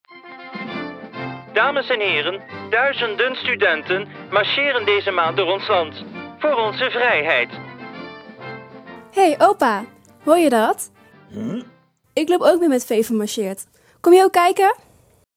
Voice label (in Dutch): radiospot; veva marcheert